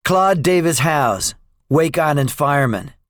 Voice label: debriefing, english; War Thunder